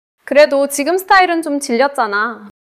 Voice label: neutral